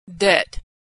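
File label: "debt", American English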